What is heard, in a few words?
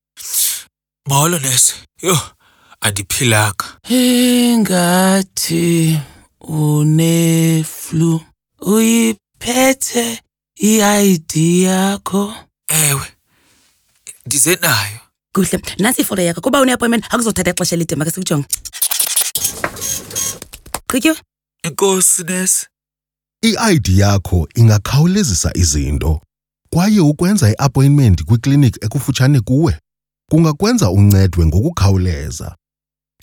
health triage xhosa